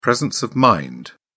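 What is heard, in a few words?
"presence of mind", British English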